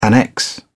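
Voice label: "annex", British English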